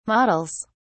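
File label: "models", British English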